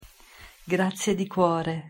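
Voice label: pronunciation "grazie di cuore", Italian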